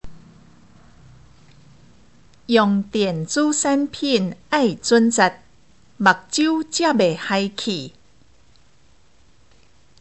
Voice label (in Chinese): (閩)生活常規